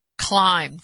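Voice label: "climbed", American English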